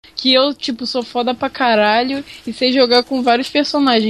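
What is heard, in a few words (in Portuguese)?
"foda", Portuguese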